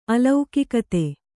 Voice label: "ಅಲೌಕಿಕತೆ", kannada

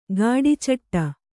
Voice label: "ಘಟಚೇಟಿ", Kannada

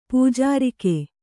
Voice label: "ಪೂಜಾರಿಕೆ", Kannada

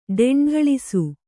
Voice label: "ಡೆಂಢಳಿಸು", Kannada

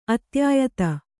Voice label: "ಅತ್ಯಾಯತ", Kannada

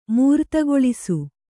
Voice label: "ಮೂರುತಗೊಳಿಸು", kannada

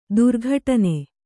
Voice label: "ದುರ್ಘಟನೆ", Kannada

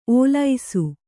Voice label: "ಓಲಿಸು", kannada

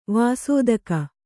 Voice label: "ವಸ್ತುಕ", Kannada

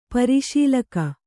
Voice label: "ಪರಿಶೀಲಕ", Kannada

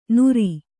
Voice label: "ನುರಿ", Kannada

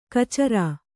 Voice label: "ಖಚರ", Kannada

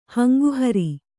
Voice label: "ಹಂಗುಹರಿ", Kannada

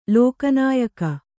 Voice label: "ಲೋಕನಾಯಕ", Kannada; lōka nāyaka; noun; = ಲೋಕನಾಥ.

